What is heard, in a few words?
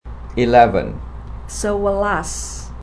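"Sewelas" Javanese; 11 eleven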